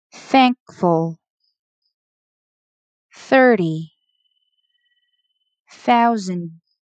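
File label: Two Syllable Initial θ, pronunciation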